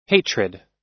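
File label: "hatred", US English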